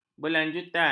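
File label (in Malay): "berlanjutan", Malay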